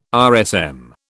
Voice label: "RSM", British